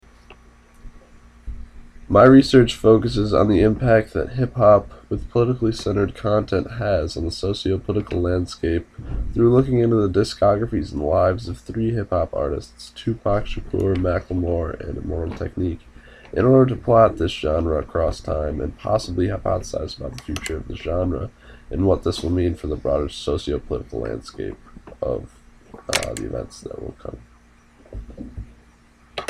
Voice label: Elevator Convorsation